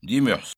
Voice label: tuesday, Breton

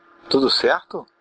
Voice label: hello, portuguese br

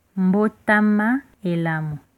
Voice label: birthday, lingala

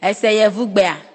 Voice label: do you speak english, ewe; Ese yevugbe a?

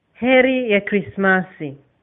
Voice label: christmas swahili

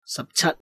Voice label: cantonese, numbers

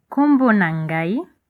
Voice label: my name is, lingala

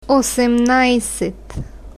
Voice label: "osemnajset", Bulgarian, numbers

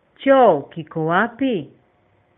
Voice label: "toilet", swahili

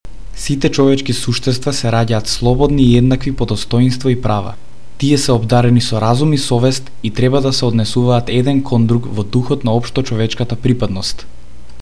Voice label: udhr macedonian

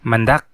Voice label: kadazandusun; mandak

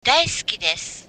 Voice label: i love you, japanese

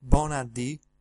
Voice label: good morning, sardinian

